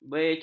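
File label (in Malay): "becok", Malay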